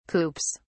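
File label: "coops", British English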